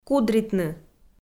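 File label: "кудритны", Komi, female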